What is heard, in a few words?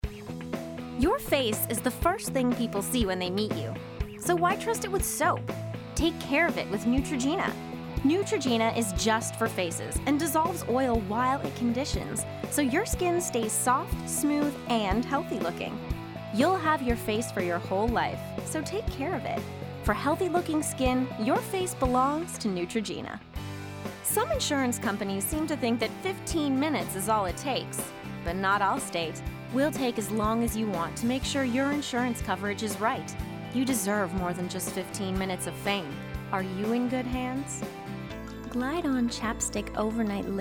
Commercial